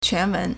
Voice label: "quan2 wen2", Chinese